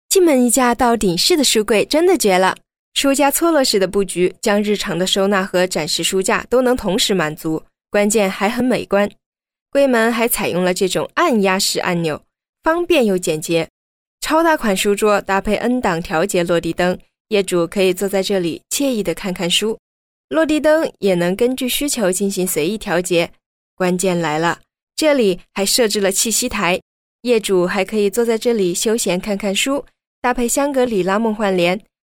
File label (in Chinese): 女, 抖音广告, 素人口语; 素人自然